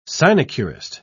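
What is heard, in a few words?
"sinecurist", British English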